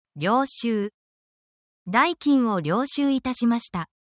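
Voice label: Japanese word, essential Japanese phrases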